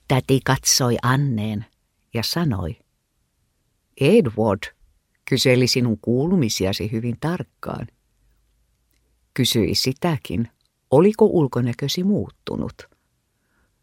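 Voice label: Finnish, Audiobook, female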